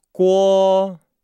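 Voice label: "guo", Chinese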